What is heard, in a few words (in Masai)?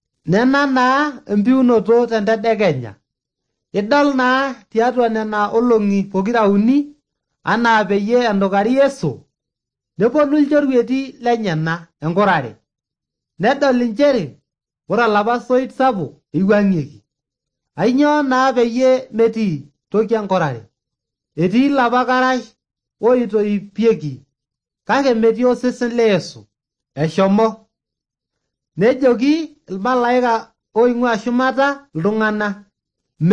maasai bsv nt drama; good news; bible